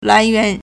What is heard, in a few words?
"lai2yuan2", Chinese